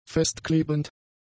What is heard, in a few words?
"festklebend", german; adjectives